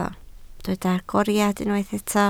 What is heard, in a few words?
"agoriad", Welsh